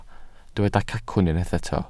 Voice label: "cacwn", Welsh